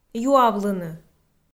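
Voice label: "юавлыны", Komi, female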